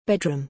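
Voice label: "bedroom", English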